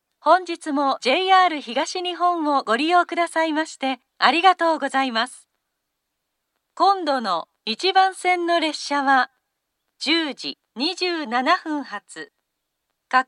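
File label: platform 1 down next departure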